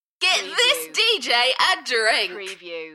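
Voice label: UK female, Enthusiastic, DJ vocals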